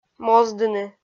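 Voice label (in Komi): "моздыны", Komi, female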